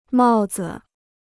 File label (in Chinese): "帽子", Chinese